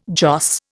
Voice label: "joss", Indian